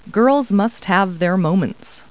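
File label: woman; Talking Clip Words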